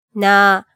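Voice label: "na", Japanese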